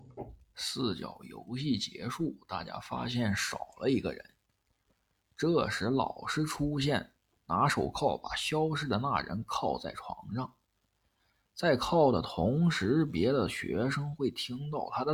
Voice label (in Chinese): 大叔; 密室